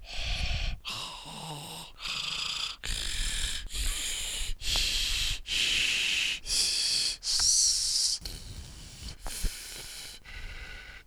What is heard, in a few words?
fricatives